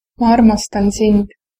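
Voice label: i love you, female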